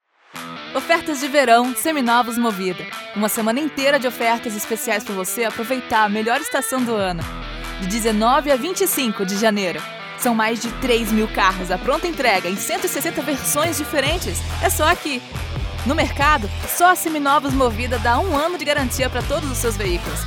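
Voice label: commercial ad rocky confident carioca female brazilian portuguese voice; movida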